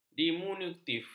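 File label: "diminutif", Malay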